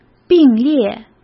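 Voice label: "并列", Mandarin Chinese; hsk; chinese-flashcards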